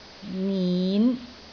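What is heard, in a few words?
"nin5", Cantonese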